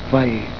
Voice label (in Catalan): "vall", Catalan; Valley